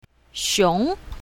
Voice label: "xiong2", Mandarin; animals